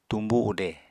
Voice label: "tumbu ude", apatani